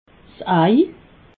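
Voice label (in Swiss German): "ei", Haut-Rhin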